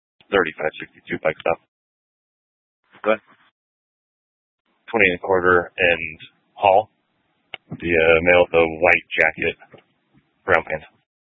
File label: Police Dispatch; Police 1 Dispatch